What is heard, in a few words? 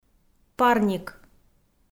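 "парник", Komi, female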